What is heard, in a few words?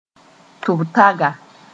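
"tʋbtaga", Mooré; plant sp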